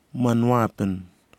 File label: birds Black imperial pigeon, Kwamera